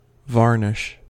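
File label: "varnish", US English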